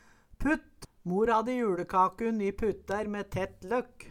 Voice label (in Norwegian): "putt", Numedalsmål; Mor hadde juLekakun i puttær me tett løkk